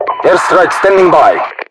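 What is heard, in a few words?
Russian airstrike, voiceovers; english; mpvoice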